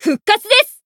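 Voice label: Voice healed, Japanese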